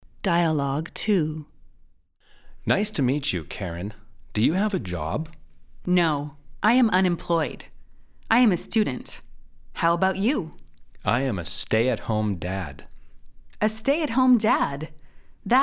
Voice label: Dialogue slow